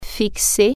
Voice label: fix, french